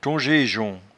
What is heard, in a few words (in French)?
"tonjejon", patois jura